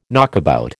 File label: "knockabout", American